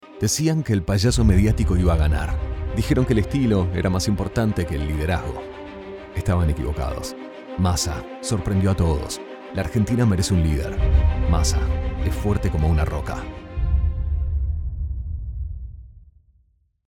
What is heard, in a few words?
Argentinian Accent, Bilingual Spanish; Political; Bilingual Spanish Voiceovers